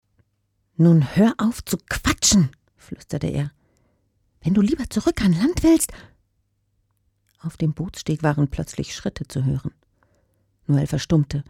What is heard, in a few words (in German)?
Kinderbuch spannend